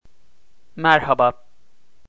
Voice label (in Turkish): "merhaba", turkish, greetings